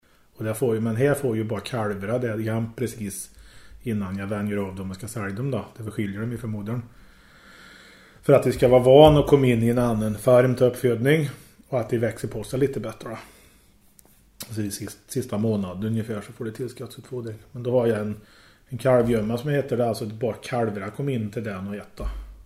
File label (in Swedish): dialekter